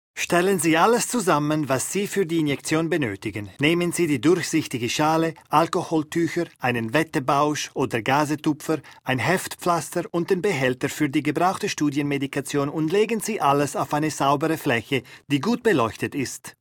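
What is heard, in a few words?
Swiss German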